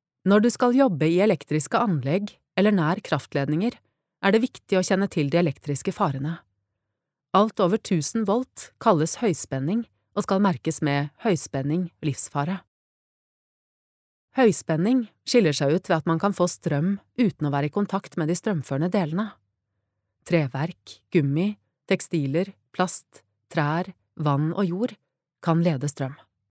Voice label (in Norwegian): e-laering, norwegian, female